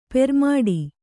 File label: "ಪೆರ್ಮಡಿ", Kannada